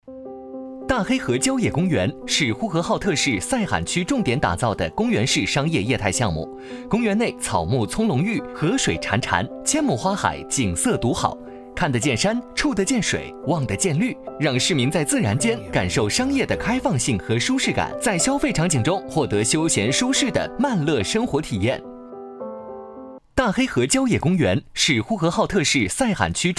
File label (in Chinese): 男 文旅 郊野公园; 大黑河郊野公园; 文旅配音